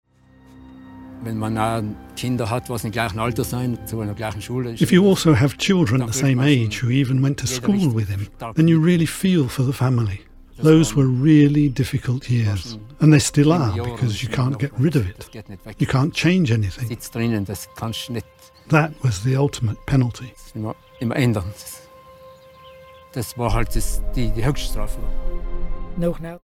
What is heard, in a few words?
Voiceover; Manaslu; ITV